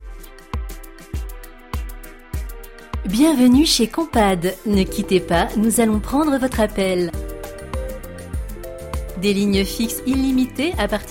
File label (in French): annonce téléphonique studio